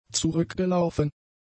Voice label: "zurückgelaufen", German; adjectives

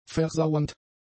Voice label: "versauernd", german; adjectives

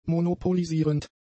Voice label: "monopolisierend", German; adjectives

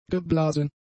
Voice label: "geblasen", German; adjectives

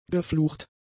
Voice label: "geflucht", German; adjectives

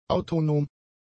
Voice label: "autonom", German; adjectives

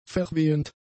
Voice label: "verwehend", German; adjectives

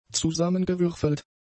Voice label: "zusammengewürfelt", German; adjectives

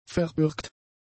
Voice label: "verbürgt", German; adjectives

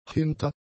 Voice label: "hinter", German; prepositions

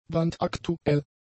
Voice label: "brandaktuell", German; adjectives

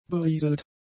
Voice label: "berieselt", German; adjectives

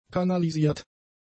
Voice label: "kanalisiert", German; adjectives

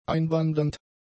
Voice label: "einwandernd", german; adjectives

